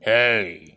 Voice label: hey aggressive, Aggressive NPC sounds "Hey", "I will kill you"